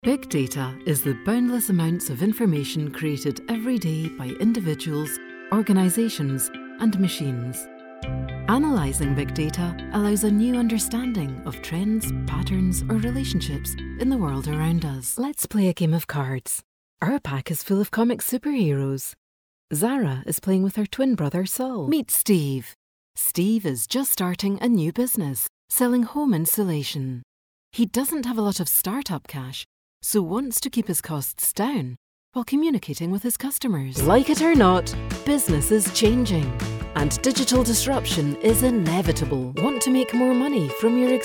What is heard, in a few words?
Narration Showreel